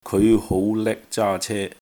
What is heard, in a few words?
"keoi5 hou2 lek1 zaa1 ce1", cantonese